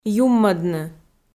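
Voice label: "юммӧдны", Komi, female; kpv audio dict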